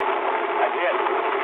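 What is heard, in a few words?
aircraft destroyed mood low, speech English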